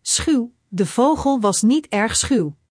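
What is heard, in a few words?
"schuw", Dutch; Spelling Gr4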